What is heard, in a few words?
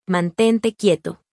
please hold still, es-mx